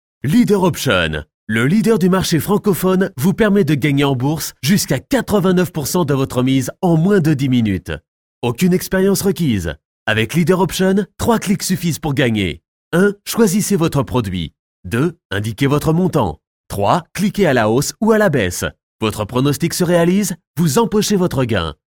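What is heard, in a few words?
FR M commercial, French, male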